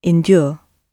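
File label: "endure", British English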